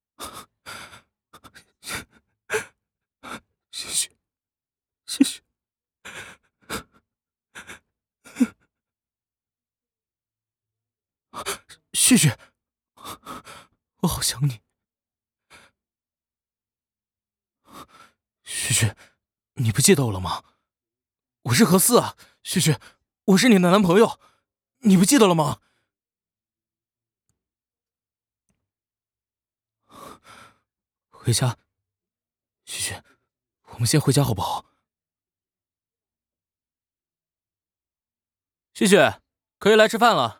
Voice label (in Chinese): 青年 男